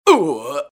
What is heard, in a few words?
evil pain; CAC ASSETS